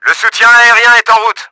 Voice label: bomber wave approaching, french; Battle Engine Aquila

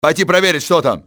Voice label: check that out, russian soldier; XBOX

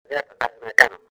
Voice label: spanish; XBOX; ambience

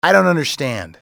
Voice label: dont understand, english male; XBOX; civ

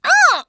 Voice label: COMM KID F HIT; XBOX

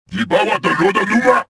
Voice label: anger; XBOX

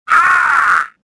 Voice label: choke; swamp

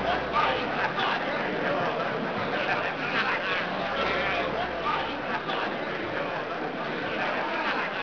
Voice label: talks, tavern; Pirates Of The Caribbean; AMBIENT

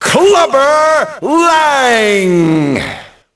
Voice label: announce, ENGLISH; Rocky; XBOX

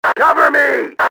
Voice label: "cover me", radio, male; XBOX

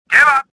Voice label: suspicious; swamp

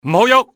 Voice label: hold position, enemy cantonese male; XBOX

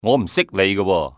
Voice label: "dont know", male; XBOX; civ

